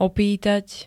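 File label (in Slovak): "opýtať", Slovak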